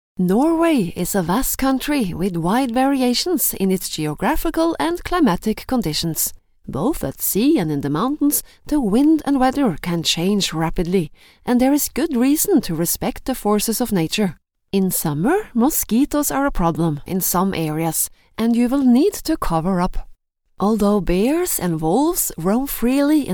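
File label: Norwegian, female, English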